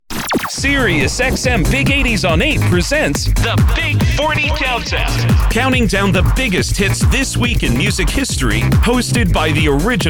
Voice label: radio TV promo; Commercial & Promo